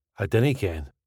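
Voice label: I dinnae ken, Scottish English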